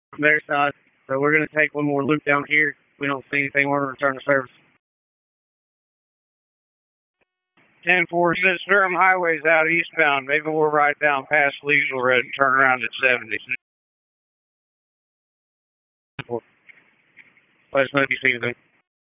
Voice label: FIRE OPS, p25